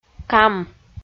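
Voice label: "кам", Komi, female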